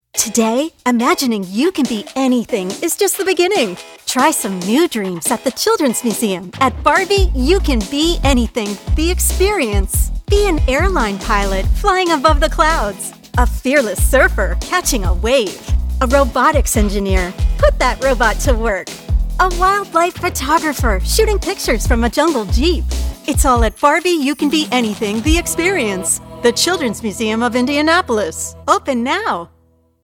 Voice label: Radio; YCBA; Radio30